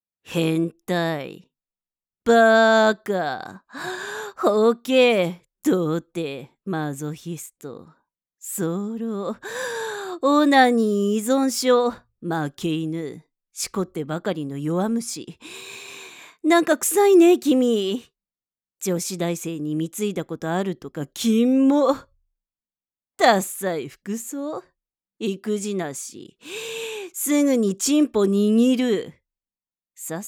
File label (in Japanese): アドリブ罵倒